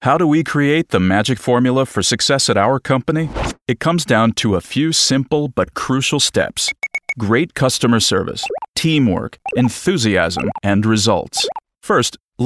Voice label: Corporate Narration Orientation